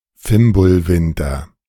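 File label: "Fimbulwinter", German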